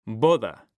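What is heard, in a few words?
"BODA", Spanish, male; mekanta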